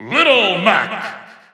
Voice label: English Announcer; Little Mac; SSB4; SSBU

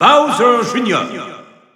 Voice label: French Announcer; Bowser Jr.; SSBU

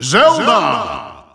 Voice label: Dutch Announcer; Zelda; SSBU

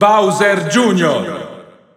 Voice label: Italian Announcer; Bowser Jr.; SSBU

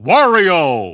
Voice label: English Announcer; Wario; SSBB

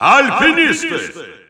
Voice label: Russian Announcer; Ice Climbers; SSBU

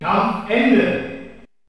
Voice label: German Announcer; SSB

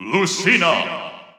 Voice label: English Announcer; Lucina; SSB4-SSBU

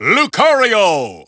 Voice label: English Announcer; Lucario; SSBB

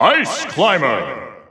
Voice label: Japanese Announcer; Ice Climber; SSBU

